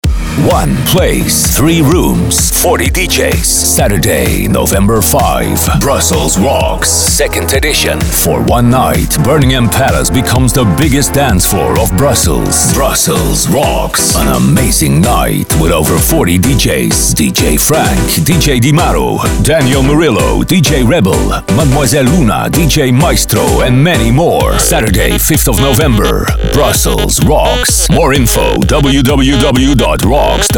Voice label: party commercial; brusselz rockz